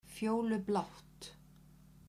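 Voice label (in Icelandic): "fjólublátt", Icelandic, female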